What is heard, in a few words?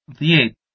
"Djet" Albanian